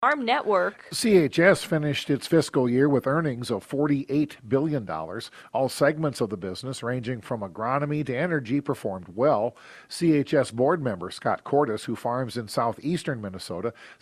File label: air check